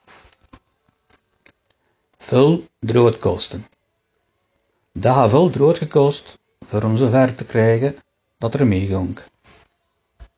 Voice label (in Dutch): vul drood kosten, dialect